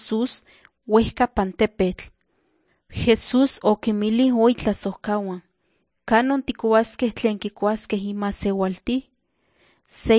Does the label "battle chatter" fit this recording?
No